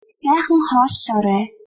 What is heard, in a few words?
bon appetit, mongolian